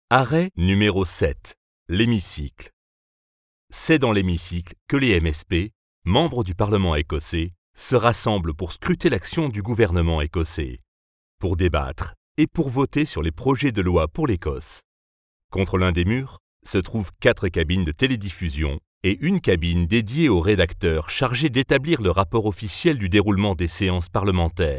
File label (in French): Voix grave Audioguide; Parlement Ecossais